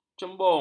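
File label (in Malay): "cembung", Malay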